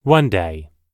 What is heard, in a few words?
one day, US English